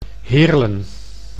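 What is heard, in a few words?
"Heerlen", Dutch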